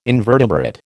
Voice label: "invertebrate", American